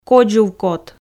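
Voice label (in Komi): "кодзувкот", Komi, female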